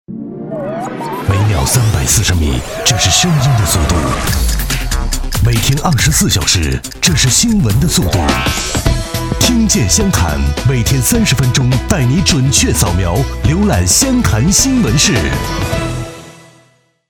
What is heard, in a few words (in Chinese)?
男 片花 新闻片头 低沉 大气